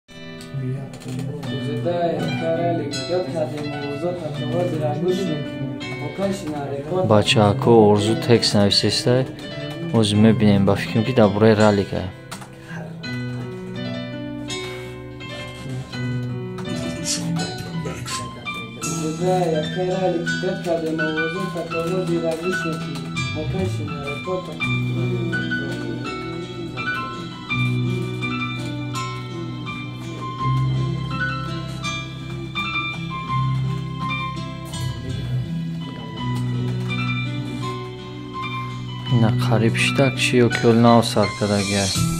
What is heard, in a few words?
rap